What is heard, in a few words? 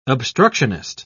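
"obstructionist", British English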